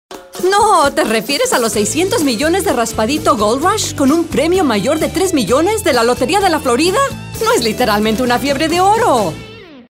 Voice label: high energy